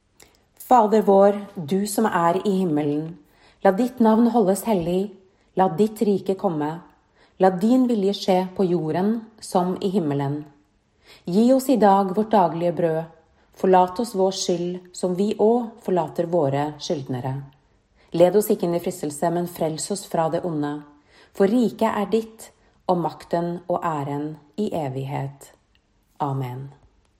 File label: norwegian